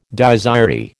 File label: "Dies irae", American